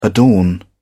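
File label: "adorn", British English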